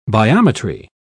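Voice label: "biometry", British English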